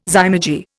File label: "zymurgy", Indian